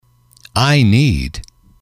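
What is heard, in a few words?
"I need", English, male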